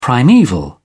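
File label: "primaeval", British English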